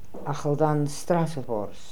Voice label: "Akhaldanstrassovors", Beelzebub pronounciations; Fourth Way